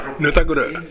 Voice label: "nudaguru", minamiyamagata